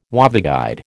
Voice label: "waveguide", American

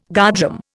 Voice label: "guardroom", Indian

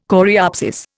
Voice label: "coreopsis", Indian

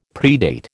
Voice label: "predate", American

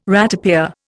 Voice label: "ratepayer", Indian

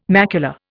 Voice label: "macula", Indian

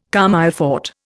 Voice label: "comme il faut", Indian

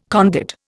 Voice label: "conduit", Indian

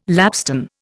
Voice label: "lapstone", Indian

